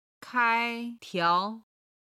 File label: "kai1 tiao2", Chinese